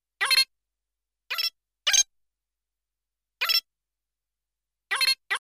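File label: funny sped-up voice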